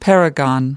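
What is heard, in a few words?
"paragon", American English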